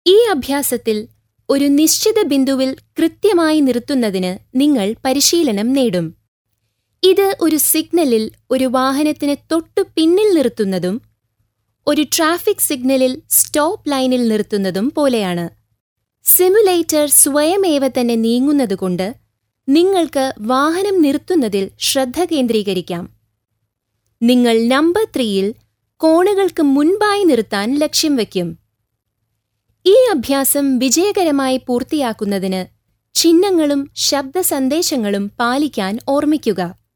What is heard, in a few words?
Malayalam Female